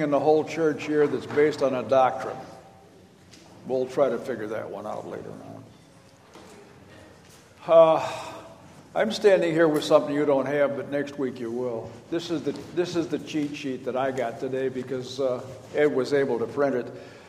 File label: Welcome and Announcements